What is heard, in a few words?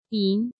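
"Yín", Chinese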